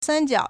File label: "san1jiao1", Chinese